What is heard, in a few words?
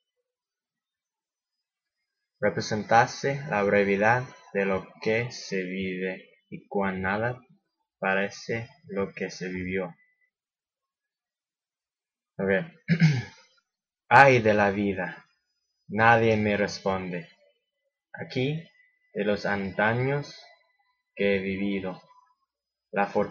spanish poem